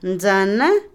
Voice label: ortiga, Otomí, mujer; INALI

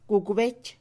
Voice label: impuesto, Mixe, Mujer; Posesión; INALI

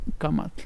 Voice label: "boca", Mexicano Temixco Xoxocotla

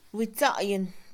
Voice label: plancha, Mixe, Mujer; Casa; INALI

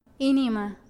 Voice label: "corazón", Mazateco; INAH; INALI

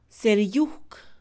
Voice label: "cera de abeja", Mixe, Mujer; Animales; INALI

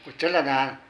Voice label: Casarse, Zapoteco de Petapa; Parentesco; Vocabulary

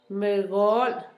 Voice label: viejo, Zapoteco, Asunción Ocotlan; Humano; INALI

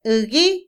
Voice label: dolor, Otomí, mujer; Emociones; INALI

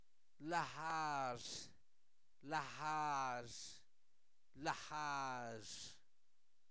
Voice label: Zapoteco, santo domingo albarradas; INALI; posesivos; su nombre

